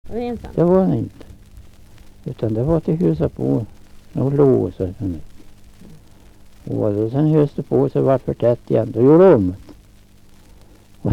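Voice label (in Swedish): Skagershult Närke, dialekter; Folkminnen; Svenska sagor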